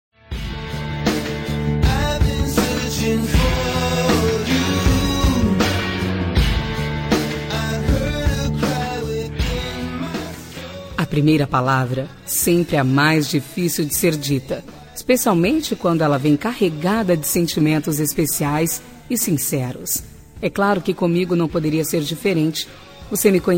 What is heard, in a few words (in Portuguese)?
Amizade Mensagem pra Amizade F